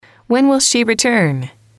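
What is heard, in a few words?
"when will she return", English, female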